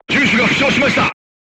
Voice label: voice message gunlayer wounded, crew tanks japanese